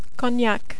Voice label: "Cognac", French

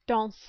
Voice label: "danse", French

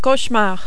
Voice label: "cauchemar", French

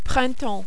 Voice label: "printemps", French

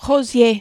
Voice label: "rosier", French

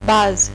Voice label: "base", French

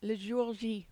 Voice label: "le jour j", French

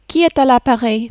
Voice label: "qui est a l appareil", French

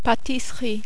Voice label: "patisserie", French

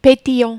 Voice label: "petillant", French

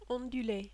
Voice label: "ondule", French

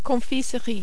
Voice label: "confisserie", French

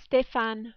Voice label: "Stephane", French

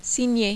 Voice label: "signer", French

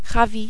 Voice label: "ravi", French

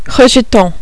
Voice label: "rejeton", French

